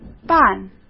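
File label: "办", Mandarin Chinese; hsk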